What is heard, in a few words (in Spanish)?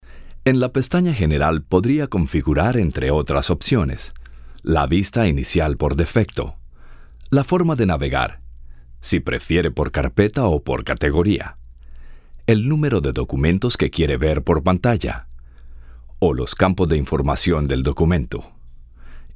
locutor mexicano mejicano; Locutores de México